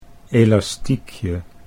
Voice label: "elastiekje", Dutch

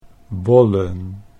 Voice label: "bollen", Dutch

